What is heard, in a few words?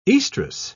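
"oestrus", English, British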